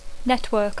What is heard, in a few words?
"network", English